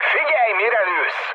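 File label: player friendly fire, mood med, Hungarian; speech; War Thunder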